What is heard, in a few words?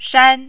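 "shan", Mandarin, first tone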